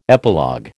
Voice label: "epilogue", American